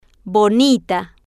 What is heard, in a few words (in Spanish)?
"bo-ni-ta", Spanish